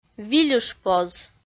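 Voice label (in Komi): "вильышпоз", Komi, female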